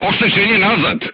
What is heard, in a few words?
voice message shot back, crew tanks serbian